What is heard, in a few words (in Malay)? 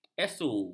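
"asu", Malay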